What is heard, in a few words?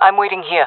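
waiting here, jungle female; vo; Counter Strike Model Pack; CS:GO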